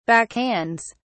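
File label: "backhands", British English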